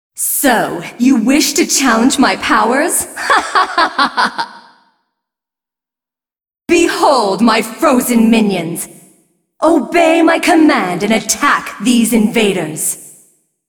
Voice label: cinematic voice; lineage2